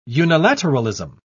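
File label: "unilateralism", British English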